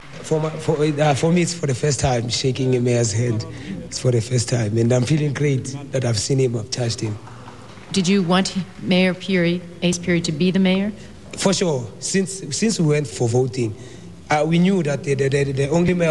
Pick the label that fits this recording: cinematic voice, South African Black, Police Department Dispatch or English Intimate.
South African Black